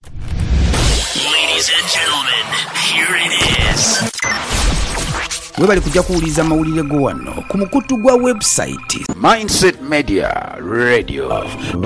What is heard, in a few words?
Jingle Radio Luganda; Mindset media